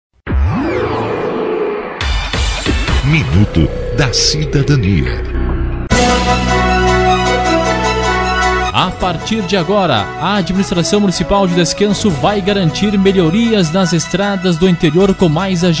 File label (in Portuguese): spot prefeitura rolo compactador